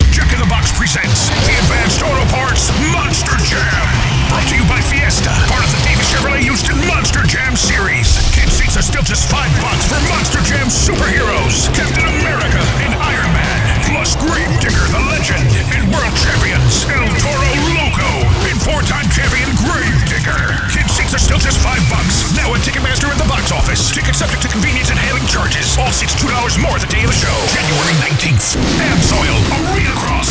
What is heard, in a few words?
High Energy Voice Over